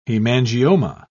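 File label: "hemangioma", British English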